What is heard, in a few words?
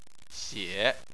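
"xie", Chinese, third tone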